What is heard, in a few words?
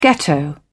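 "ghetto", British English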